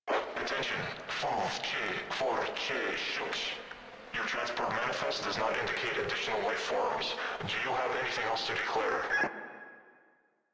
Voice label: Controller, Radio Interceptions; Customs; Grineer Controller

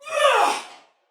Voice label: Attack Heavy Female; Dialog; Barks; Battle; Sinecure

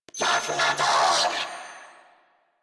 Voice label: Reload, Female; en; Warframe; New War; Narmer Grineer

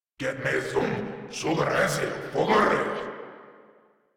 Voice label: Cover Me Grineer Male

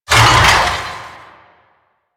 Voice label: Necramech Melee Pain, Barks; Warframe

